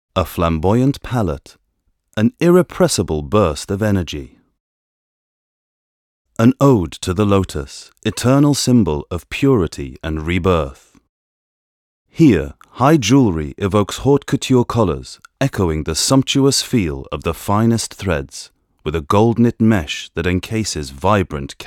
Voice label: ENGLISH UK MALE; corporate